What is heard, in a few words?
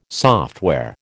"software", American